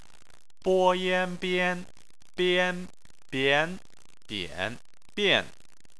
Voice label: "bian", Chinese